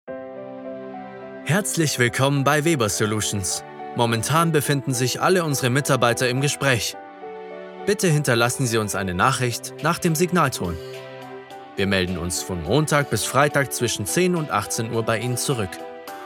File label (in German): Warteschleife